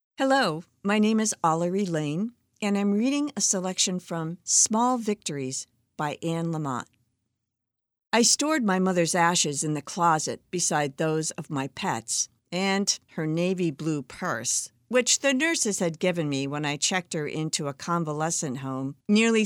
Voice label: AUDIOBOOK; SMALL VICTORIES